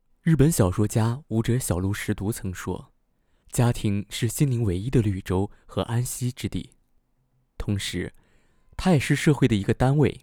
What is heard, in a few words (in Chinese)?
电影解说温柔男